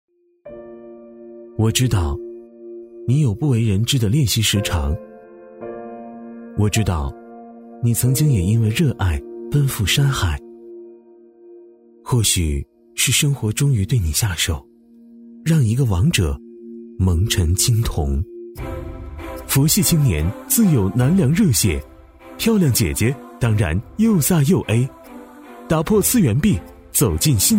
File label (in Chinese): 男 活动宣传